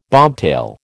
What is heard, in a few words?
"bobtail", American